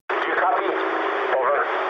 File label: eng chat, speech eng